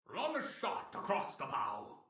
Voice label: beat pirate voice; goldentavern

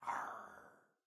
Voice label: pirate voice; goldentavern

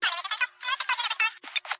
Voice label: amb Police Chatter Oct Up; hotline2

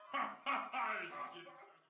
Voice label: pirate voice; goldentavern

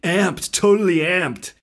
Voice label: Special Amped; wild water

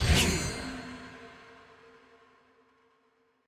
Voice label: scatter hit male; druids dream

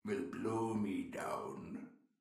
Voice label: beat pirate voice; goldentavern

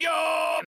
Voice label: Animation Woohoo; magic maid cafe

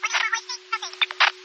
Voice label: amb Police Chatter Oct Up; hotline2

